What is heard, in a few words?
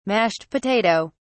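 "mashed potato", British English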